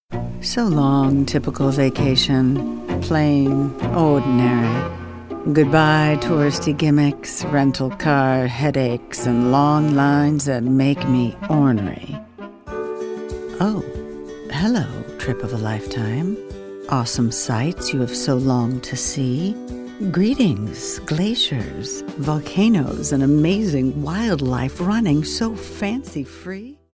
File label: smooth